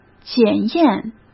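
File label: "检验", Mandarin Chinese; hsk; chinese-flashcards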